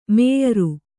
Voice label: "ಮೇಯರು", Kannada

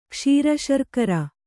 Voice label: "ಕ್ಷೀರಶರ್ಕರ", kannada

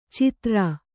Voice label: "ಛಿದ್ರ", Kannada

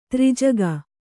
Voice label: "ತ್ರೈಜಗ", Kannada

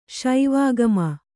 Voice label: "ಶಿವಾಗಮ", Kannada; śivāgama; noun; a Śaivāgama.

